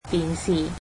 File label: "television", Cantonese